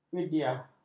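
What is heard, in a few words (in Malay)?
"fidyah", Malay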